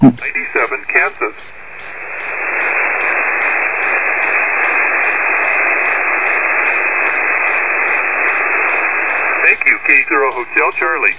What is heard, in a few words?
SSB USB 21MHz; NT1K